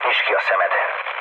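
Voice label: Hungarian player friendly fire mood low, speech; War Thunder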